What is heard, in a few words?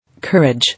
"Courage", English